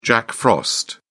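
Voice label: "jack frost", British English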